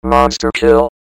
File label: "monsterkill", robot; cstrike; quake